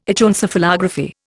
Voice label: "Echoencephalography", Indian